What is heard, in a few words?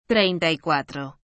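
"treinta y cuatro", Spanish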